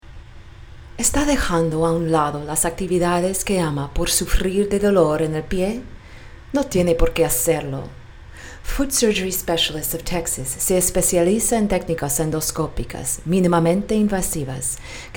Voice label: Spanish